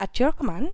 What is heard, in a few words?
summoner acknowledgement, voices